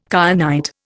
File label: "kyanite", Indian